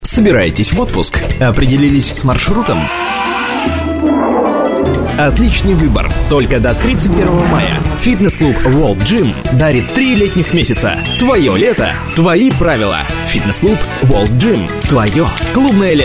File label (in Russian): gym Озвучка к видео